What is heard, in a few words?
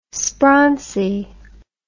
"sprauncy", english